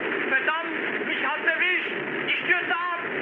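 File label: German aircraft destroyed mood high, speech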